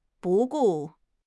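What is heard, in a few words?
"不顾", Chinese; HSK6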